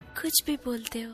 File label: Girl Voice